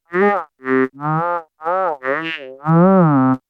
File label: mumble